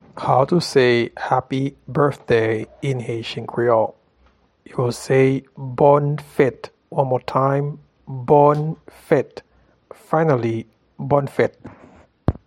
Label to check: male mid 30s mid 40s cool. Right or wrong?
Wrong